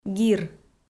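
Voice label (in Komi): "гир", Komi, female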